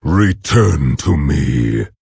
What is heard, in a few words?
Orc; Nerzhul; Reincarnate